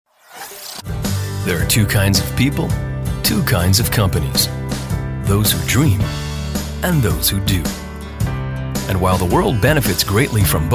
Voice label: COMMERCIAL

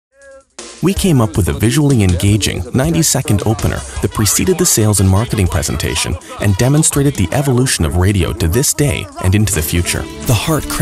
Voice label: narration

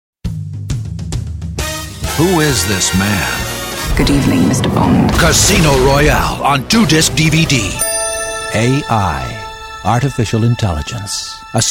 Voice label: Commercials Radio and TV

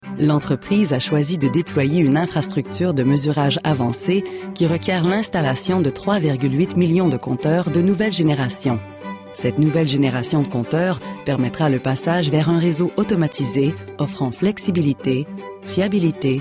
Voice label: VO Narration FR

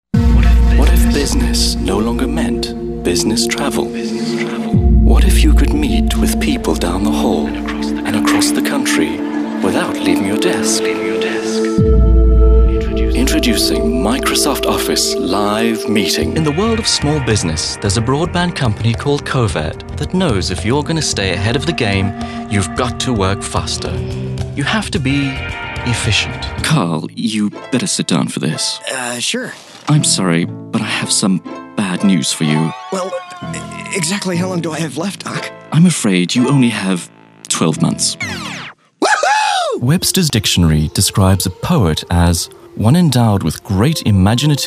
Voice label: Commercials

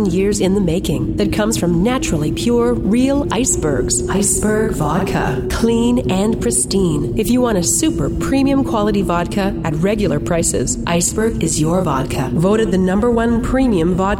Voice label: Commercial

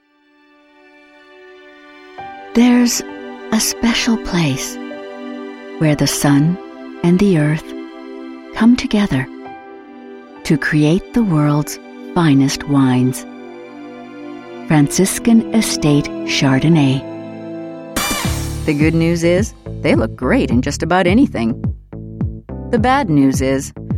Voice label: Commercial

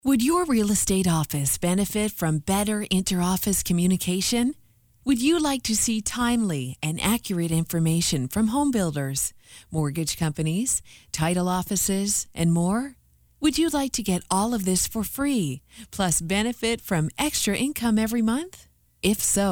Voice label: Narration

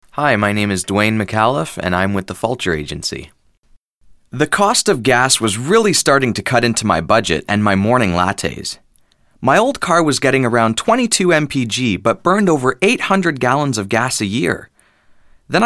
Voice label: Commercial

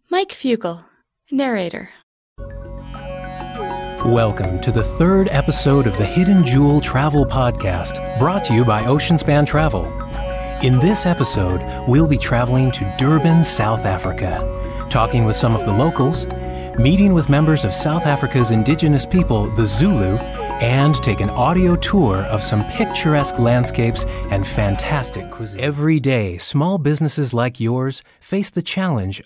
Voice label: Narration

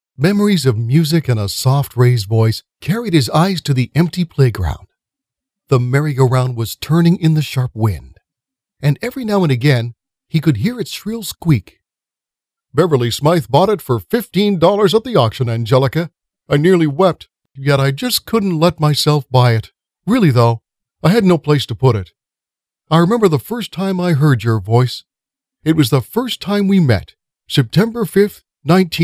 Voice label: Audio Books

